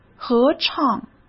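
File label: "合唱" Mandarin Chinese; hsk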